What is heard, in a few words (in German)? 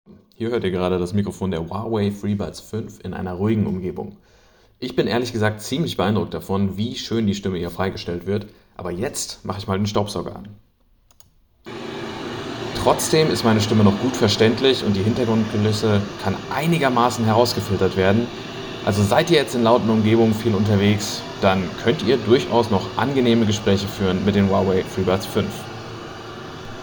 Huawei FreeBuds 5 Mikrofon Test